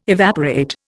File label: "evaporate", Indian